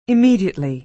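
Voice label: "immediately", American English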